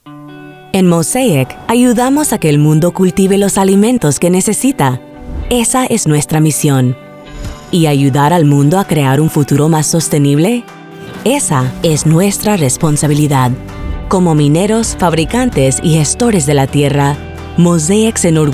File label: Spanish Political Informative Upbeat Positive Motivational Environmental Female